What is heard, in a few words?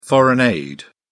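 "foreign aid", British English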